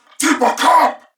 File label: Reload Male, en; Corpus Venus; Warframe; CorpusVenusMaleB